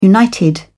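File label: "united", British English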